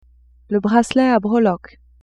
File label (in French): "bracelet a breloques", French; vetements